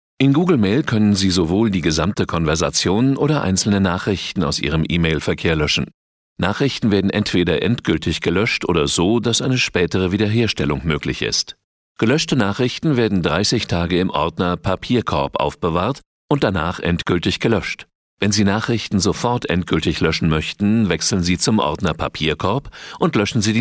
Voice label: germ, man